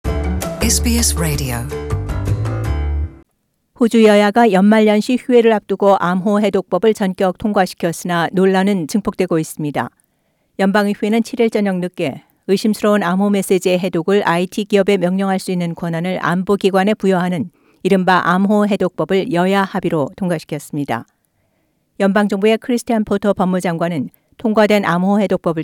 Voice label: korean; SBS Korean; 상하원 통과 암호법 논란 증폭...노동당, "다시 손볼 것"